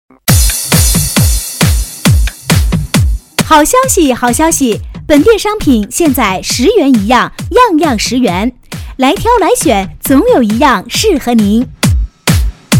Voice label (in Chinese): 女-促销-激情促销广告